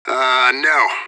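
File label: radio bot response negative; fbihrt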